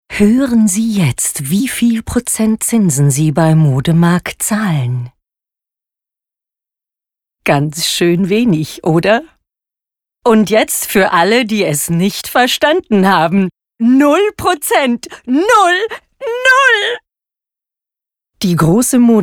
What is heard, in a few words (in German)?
Werbung steigernd